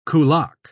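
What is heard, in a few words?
"kulak", British English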